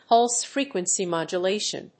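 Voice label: "pulse frequency modulation", English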